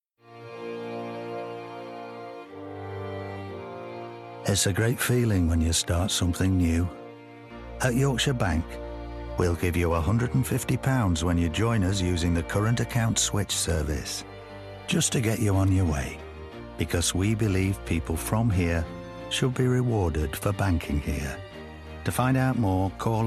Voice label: Commercial; Yorkshire Bank

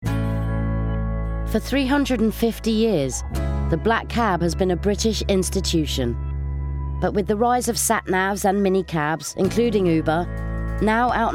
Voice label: doco

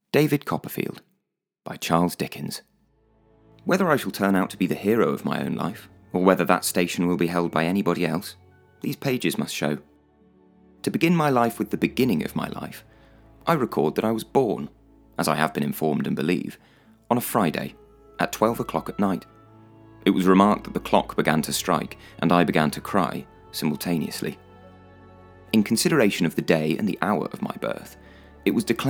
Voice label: Narration; Charles Dickens

